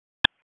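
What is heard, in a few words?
Sheriff's Office Dispatch; WSO DISP 1; wake; p25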